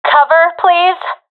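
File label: request coverme, professional female; vo; Counter Strike Model Pack; CS:GO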